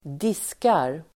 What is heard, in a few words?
"diskar", Swedish